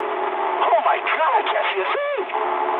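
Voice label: English searchlite mood high; speech; War Thunder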